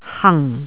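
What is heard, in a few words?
"hang4", Cantonese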